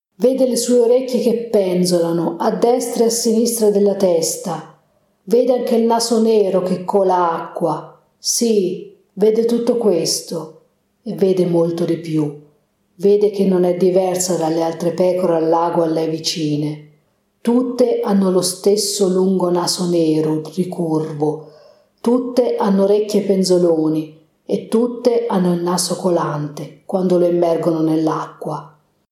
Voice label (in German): italienisch